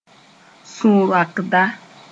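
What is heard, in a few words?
"sũ-roakda", Mooré; avenger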